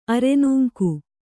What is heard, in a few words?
"ಅರೆನೂಂಕು", Kannada